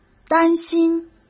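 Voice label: "担心", Mandarin Chinese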